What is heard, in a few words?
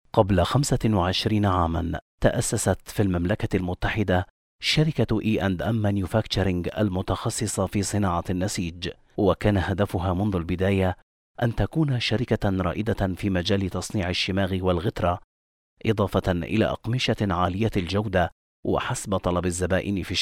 arabic corporate videos, arabic voice actors